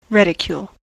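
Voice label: "reticule", US English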